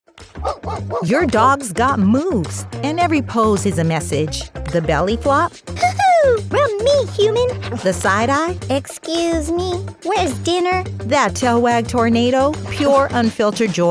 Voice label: Pawtalk with Music, Pet App Narration Video, Conversational, Friendly, relatable Female Voice